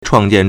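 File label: "chuàngjiàn", Chinese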